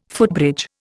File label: "footbridge", Indian